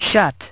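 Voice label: "shut", English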